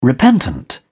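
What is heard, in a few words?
"repentant", British English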